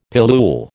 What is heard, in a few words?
"pillule", American